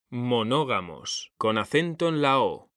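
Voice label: "monógamos", Spanish; mekanta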